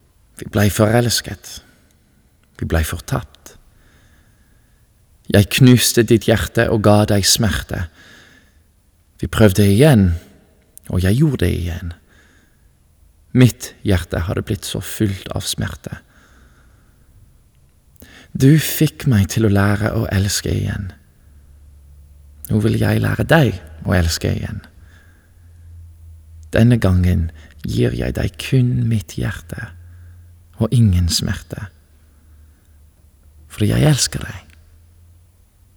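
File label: norwegian voiceovers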